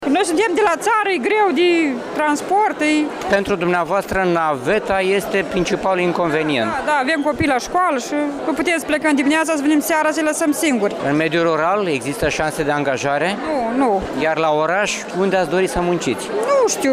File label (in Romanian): vox pop